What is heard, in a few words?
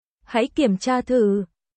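Vietnamese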